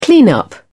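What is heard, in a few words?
"clean up", English, uk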